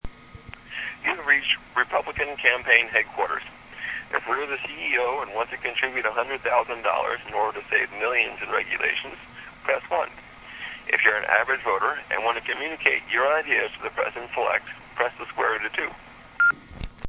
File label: voicemail